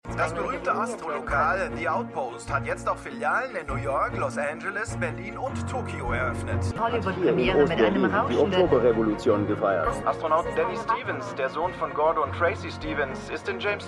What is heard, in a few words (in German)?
Nachrichten; For All Mankind